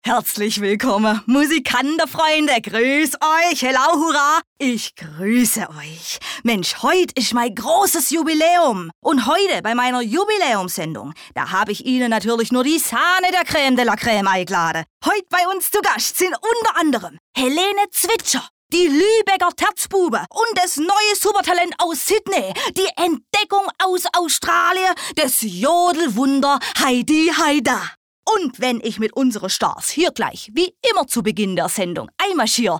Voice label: Dialekt Schwaebisch

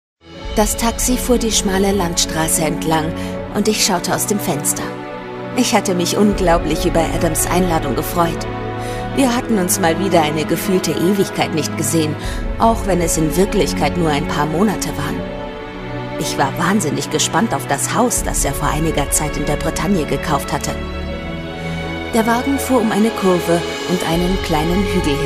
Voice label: Dramatisch

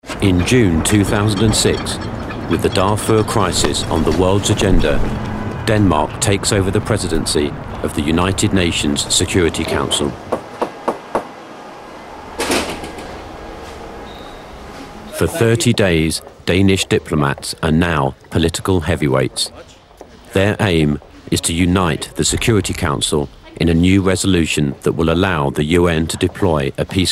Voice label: Eng UK Kommentar Neutral

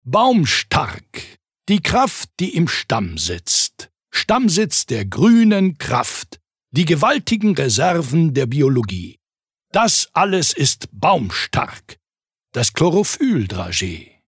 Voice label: Werbung, Kraftvoll

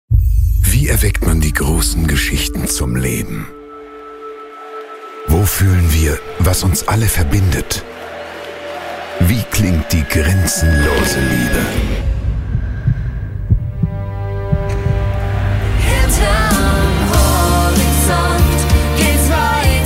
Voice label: Sanft

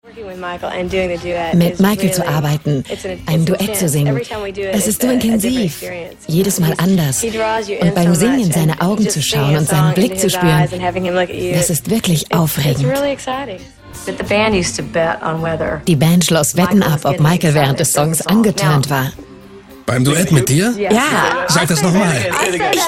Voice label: Kommentar Freundlich

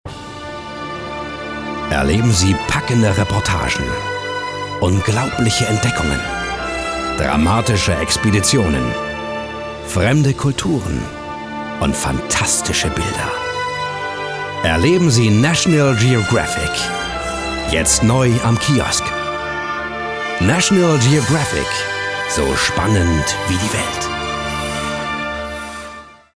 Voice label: Dynamisch; Fremdtake

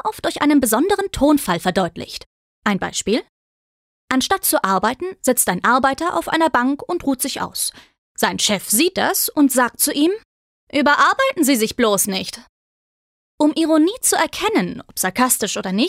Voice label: Sarkasmus Freundlich

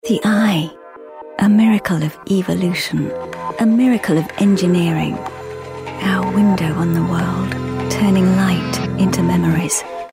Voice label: EngUK W Fremdtake Sanft

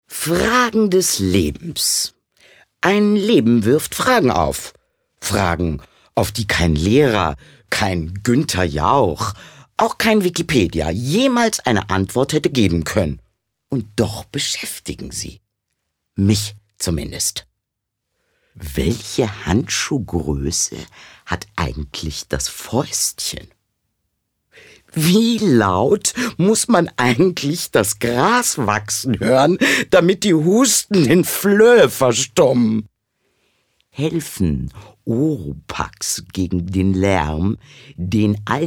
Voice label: Schauspiel Dynamisch

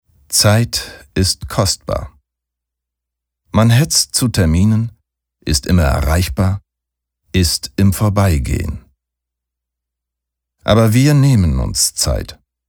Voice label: Sanft

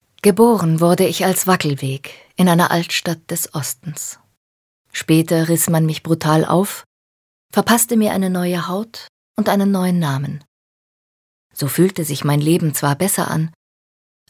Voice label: Schauspiel Sanft

